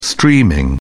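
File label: "streaming", English, British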